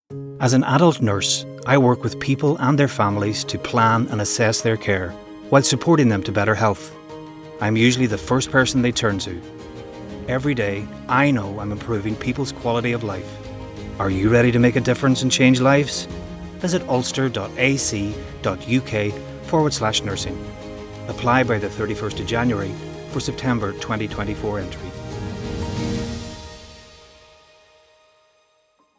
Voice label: Adult Nursing Male